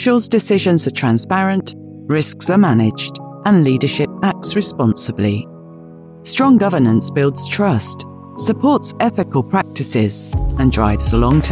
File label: Corporate Explainer